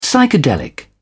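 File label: "psychedelic", British English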